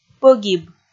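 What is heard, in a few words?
"пӧгиб", Komi, female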